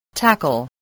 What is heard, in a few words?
"tackle", spelling